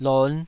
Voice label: "lon5", Taiwanese syllable

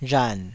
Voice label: "rhan3", taiwanese

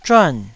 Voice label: "zhuan4", taiwanese

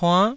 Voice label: "puann9", taiwanese

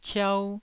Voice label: "ciau1", taiwanese

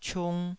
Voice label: "ciung2", taiwanese

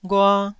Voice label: "ghua1", Taiwanese syllable

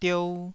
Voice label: "diou2", taiwanese syllable

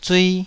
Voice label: "zui2", taiwanese

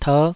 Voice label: "terh5", taiwanese Syllables

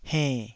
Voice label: "henn3", taiwanese

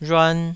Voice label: "rhuan2", taiwanese

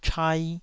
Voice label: "chai2", taiwanese

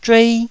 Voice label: "zhuei1", Taiwanese

